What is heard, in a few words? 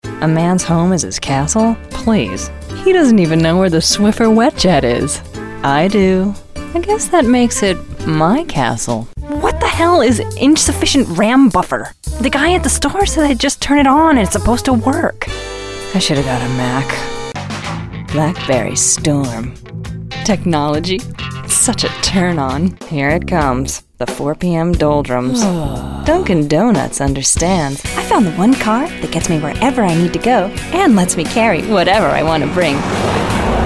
USA